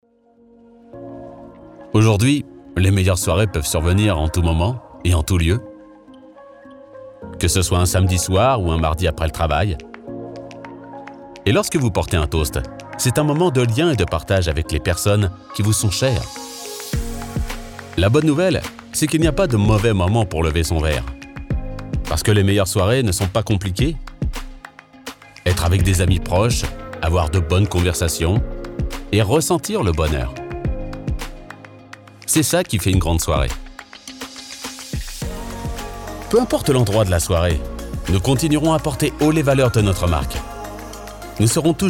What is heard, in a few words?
corp, Corporate, Conversational, French Male Voice; tincup